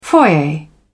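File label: "foyer", English, British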